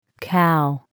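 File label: "cow", English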